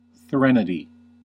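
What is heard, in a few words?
"threnody", US English; pronunciations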